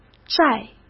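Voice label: "债", Mandarin Chinese; chinese flashcards; hsk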